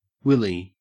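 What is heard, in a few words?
"willy", Australian English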